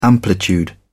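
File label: "amplitude", British English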